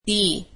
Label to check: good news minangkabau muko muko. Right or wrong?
Wrong